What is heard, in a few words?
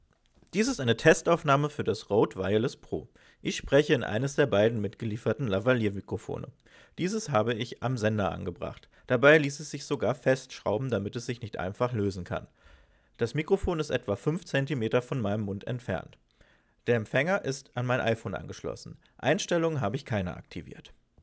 lavalier; rode wireless pro